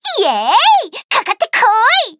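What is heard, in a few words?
start, Japanese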